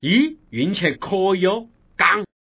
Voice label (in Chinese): gang, man; guizhou